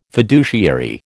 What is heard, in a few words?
"fiduciary", American